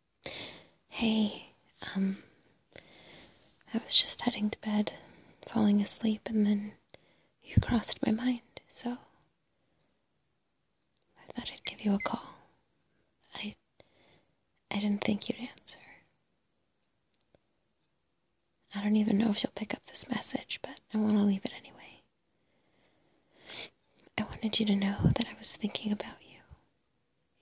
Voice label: Voicemail